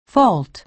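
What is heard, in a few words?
"fault", American English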